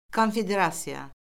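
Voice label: "konfederasiya", Azerbaijani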